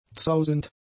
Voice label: "zausend", German; adjectives